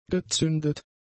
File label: "gezündet", German; adjectives